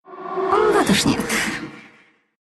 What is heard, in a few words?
deny, ru; dota2